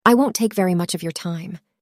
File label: synthesized audio